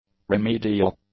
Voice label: "remedial", English; adjectives